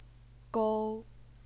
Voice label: "gou1", chinese pinyin